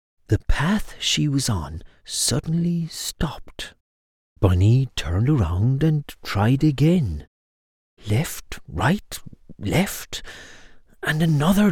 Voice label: english irish narration